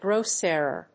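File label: "gross error", English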